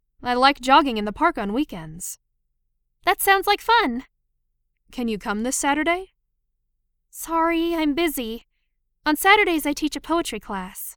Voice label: Dialogue Natural; chikara eigo jyuku; Lesson 4